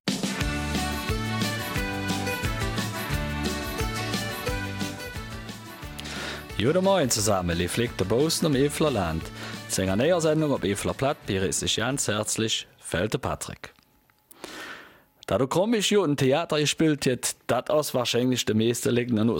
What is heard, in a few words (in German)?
Eifeler Mundart; Mach dein Theater